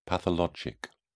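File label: "pathologic", British English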